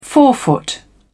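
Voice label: "forefoot", en, uk British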